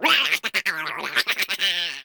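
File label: crab voice; reef raider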